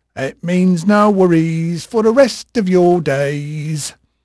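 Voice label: English male, voice; Pirates Of The Caribbean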